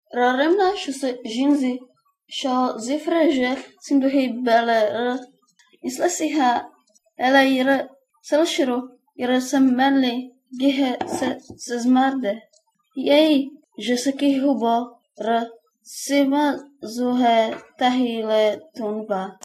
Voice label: udhr lojban